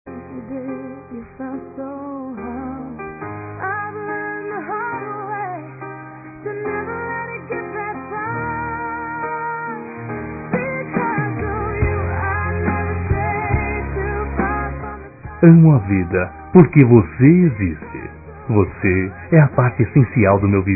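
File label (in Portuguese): DECLARAÇÃO, Masculino; Telemensagens; Dia Dos namorados; Telemensagens 2017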